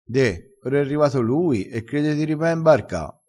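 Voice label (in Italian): "riva e mbarca", dialetto crespinese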